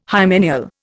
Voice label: "hymeneal", Indian